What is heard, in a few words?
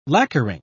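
"lacquering", British English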